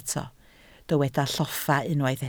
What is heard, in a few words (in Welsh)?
"lloffa", Welsh